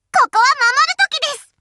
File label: chitchat, voice commands Anime Loli; svencoop